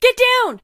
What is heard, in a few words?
war "get down", Voiceover, Female; Kenney's Sound Pack